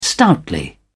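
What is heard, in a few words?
"stoutly", British English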